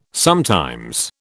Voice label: "sometimes", British